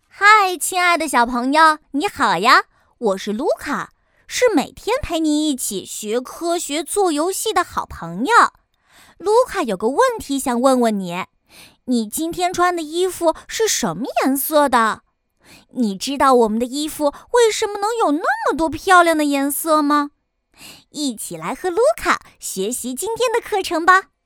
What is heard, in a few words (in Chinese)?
女 男童